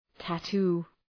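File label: "tattoo", English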